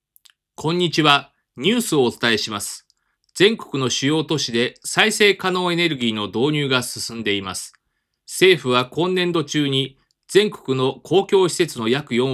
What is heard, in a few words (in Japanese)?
ニュース風